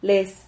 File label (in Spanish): "les", Silabas